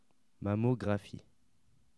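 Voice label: "mammographie", French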